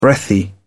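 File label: "breathy", British English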